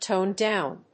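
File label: "tone down", English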